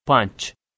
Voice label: "panch", Balochi

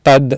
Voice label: "tad", Sanskrit

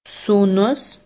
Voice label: "sūnus", Lithuanian

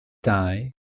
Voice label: "dau", Welsh

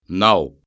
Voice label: "nav", Breton

